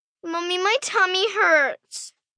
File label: Mommy Tummy, Hurt, 1 girl, 10 yrs; Pain; VoiceBox